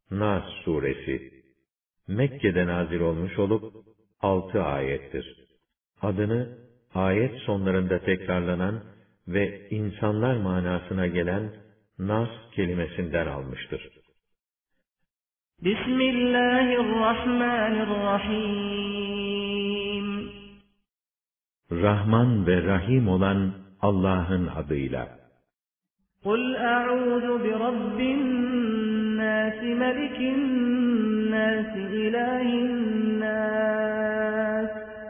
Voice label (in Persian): ترجمه گویای قرآن کریم به زبان ترکی استانبولی; سوره ناس